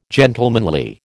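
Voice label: "gentlemanly", American English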